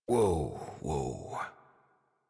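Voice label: horse stop walk adult, English; Warframe; Duviri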